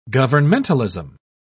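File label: "governmentalism", English, British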